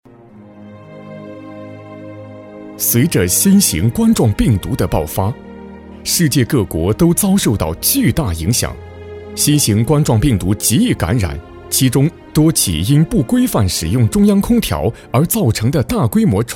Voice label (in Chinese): 男疫情专题疫情大气稳重